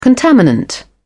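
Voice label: "contaminant", British English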